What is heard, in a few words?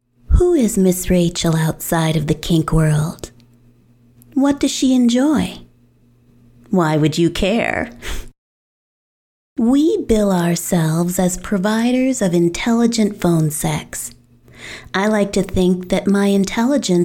Conversational Voice Sample